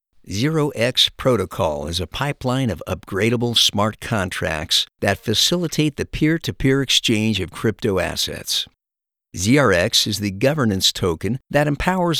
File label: Educational Video